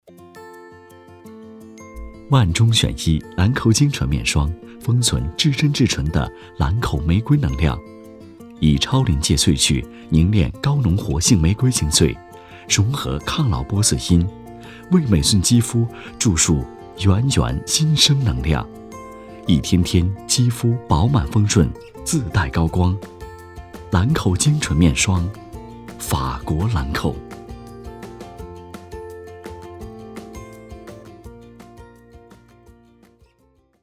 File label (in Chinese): 广告 温暖风格; 兰蔻菁纯面霜